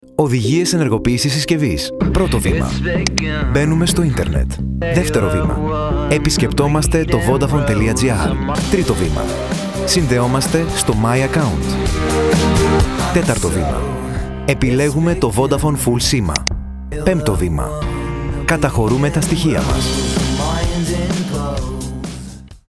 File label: instructions explainer friendly; VODAFONE